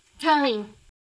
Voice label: Voice Acting es